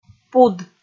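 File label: "пуд", Komi, female; kpv audio dict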